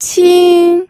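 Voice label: "qing", Mandarin Chinese, first tone; pinyin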